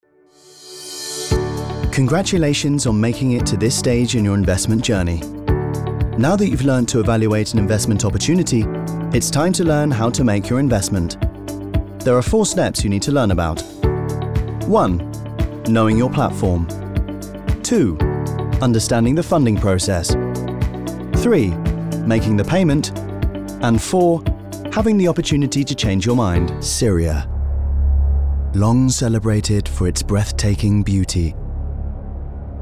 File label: Narrative